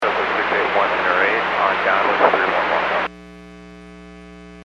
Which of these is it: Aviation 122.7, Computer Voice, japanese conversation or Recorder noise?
Aviation 122.7